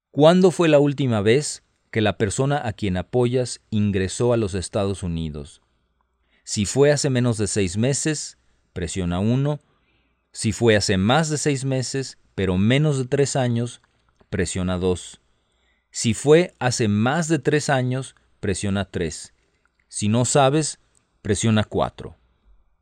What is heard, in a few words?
Spanish, hotline